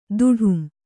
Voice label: "ತಡಂ", Kannada